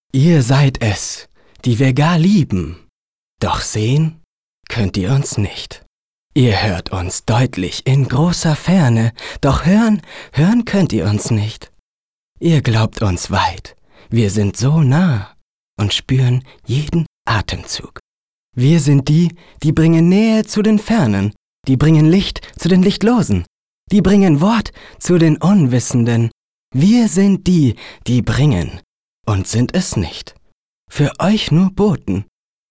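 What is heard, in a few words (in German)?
poetisch, Männer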